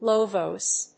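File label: "low voice", English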